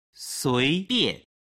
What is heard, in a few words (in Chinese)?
"suibian", Chinese